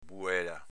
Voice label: "buela", dialetto veneto

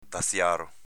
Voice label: "daziaro", dialetto veneto; s.m. agente del dazio

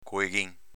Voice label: "coeghin", dialetto veneto

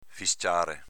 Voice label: "fisciare", dialetto veneto; v. fischiare

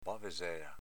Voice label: "bavesela", dialetto veneto

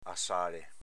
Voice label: "assale", dialetto veneto; asse; assāle s.m.

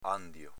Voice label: "andio", dialetto veneto

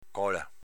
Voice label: "cola", dialetto veneto

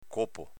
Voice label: "copo", dialetto veneto

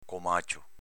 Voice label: "comacio", dialetto veneto

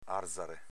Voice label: "arzare", dialetto veneto; ārzare s.m. argine

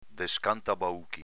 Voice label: "descantabauchi", dialetto veneto

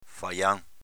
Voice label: "fajan", Veneto; s.m. fagiano

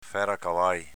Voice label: "feracavai", dialetto veneto; s.m. maniscalco

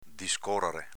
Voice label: "discorare", dialetto veneto

